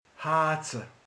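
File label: "fűt", Hungarian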